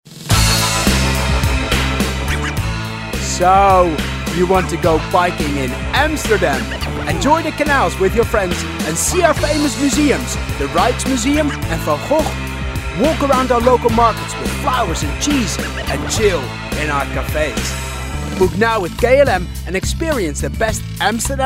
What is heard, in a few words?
English Voice; VOICEFOX